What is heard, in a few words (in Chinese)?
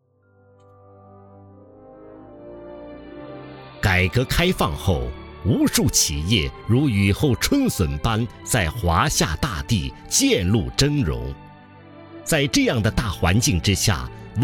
男 宣传片; 望亭镇